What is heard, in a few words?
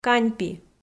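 "каньпи" Komi, female; kpv audio dict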